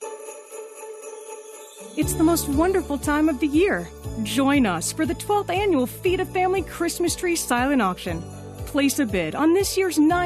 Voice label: Commercial Warm Engaging; Holiday; Casino